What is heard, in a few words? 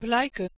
"blaika", Proto Germanic